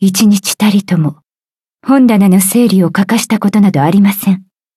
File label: levelup ja; cookie run